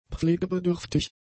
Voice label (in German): "pflegebedürftig", German; adjectives